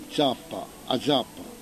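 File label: "zappa", Corsican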